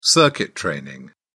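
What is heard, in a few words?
"circuit training", British English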